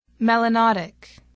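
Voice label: "melanotic", English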